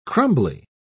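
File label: "crumbly", English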